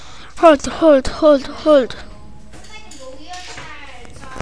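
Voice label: "hold", spoken word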